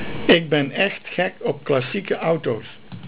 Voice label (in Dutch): "auto" Dutch